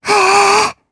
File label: Casting, jp; Lilia; kings raid